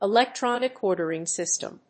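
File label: "electronic ordering system", English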